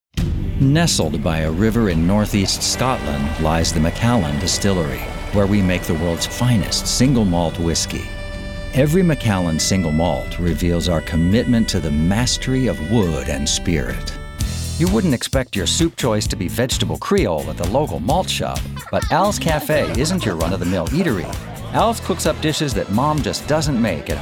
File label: Commercial